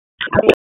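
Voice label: Dispatch 1, P25; WSO DISP 1; wake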